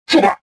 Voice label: anger